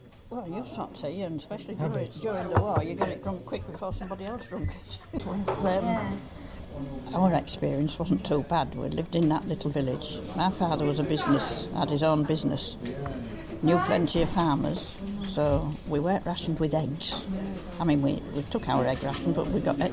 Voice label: East Yorkshire Beverley UK White Female Grandmother During The War; europe; british